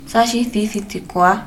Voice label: La tía come naranja, Mixteco

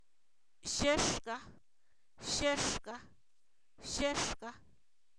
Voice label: Zapoteco, san miguel albarradas; porqué; preguntas

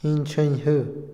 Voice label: liviano, Otomí, hombre; Percepción; Vocabulary; INALI

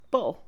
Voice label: mes, Mixe, Mujer; INALI

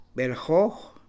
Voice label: nueve, Mam; Números; INALI

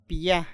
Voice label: "este", Kumiai; INALI

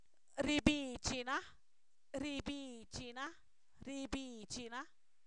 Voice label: Zapoteco, san miguel albarradas; se seca; verbos; INALI

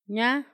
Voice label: "camino", Paipai; Vocabulary; INALI

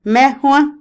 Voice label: "pescar", Otomí, mujer; Vocabulary; INALI

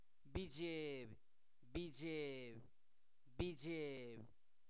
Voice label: asustado, Zapoteco, santo domingo albarradas; adjetivos; INALI

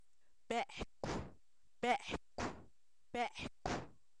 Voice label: perro, Zapoteco, san miguel albarradas; INALI

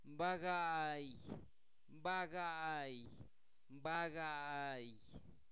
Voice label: Zapoteco Albarradas, santo domingo albarradas; INALI; numeros; quinto

